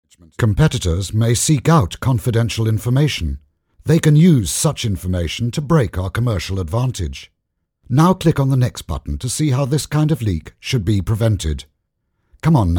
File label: uk elearning